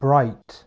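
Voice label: "bright", English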